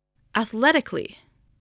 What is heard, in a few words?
"athletically", english